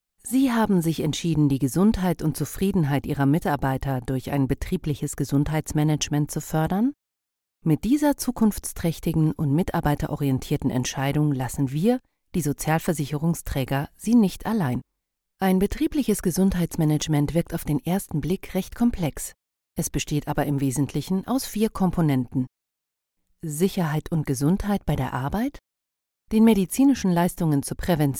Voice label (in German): Erklarfilm